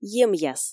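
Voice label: "емъяс", Komi, female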